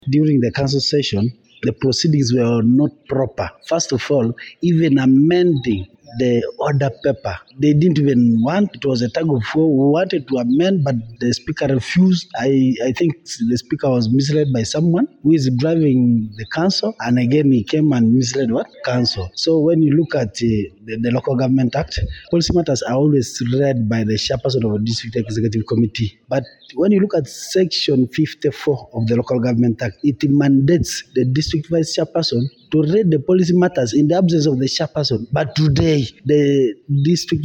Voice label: english byte; Moroto council wrangles raise fears over delayed public services